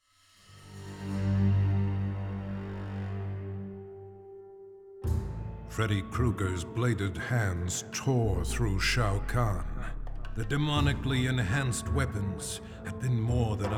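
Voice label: music voice over endings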